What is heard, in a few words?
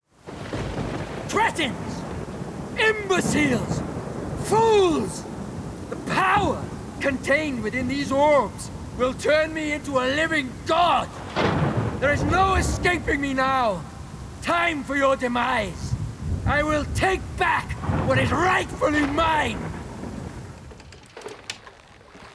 character reel